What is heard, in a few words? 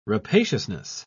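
"rapaciousness", British English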